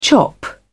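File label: "chop", British English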